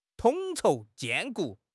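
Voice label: "统筹兼顾", Mandarin Chinese; HSK6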